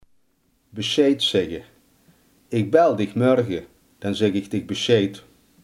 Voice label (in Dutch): "verwittigen", limburgse